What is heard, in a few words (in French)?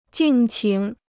"敬请", chinois